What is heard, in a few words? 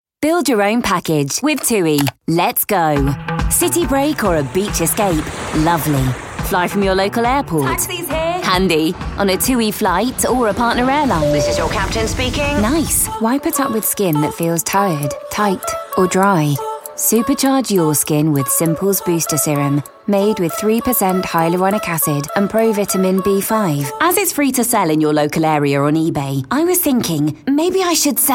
commercial